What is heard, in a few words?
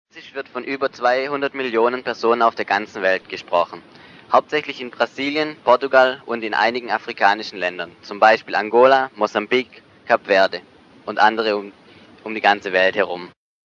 Portuguese language in the world german